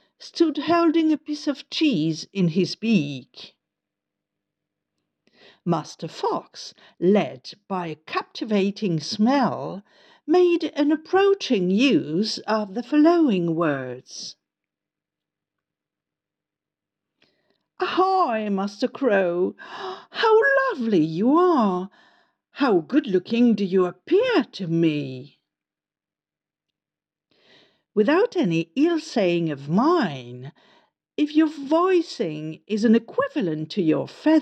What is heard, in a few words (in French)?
anglais; the corbeau and the fox fable multilingue